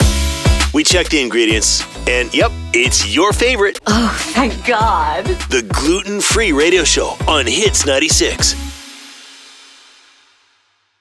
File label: Radio Liner; Gluten Free